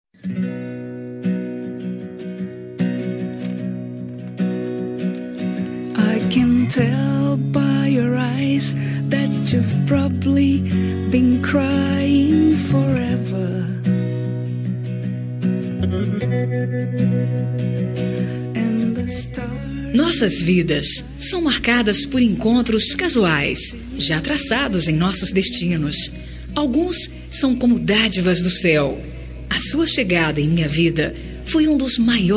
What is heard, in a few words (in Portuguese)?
amizade fem, Telemensagem Amizade – Voz Feminina